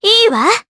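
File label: Vox Japanese; Artemia; kingsraid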